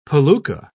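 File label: "palooka", British English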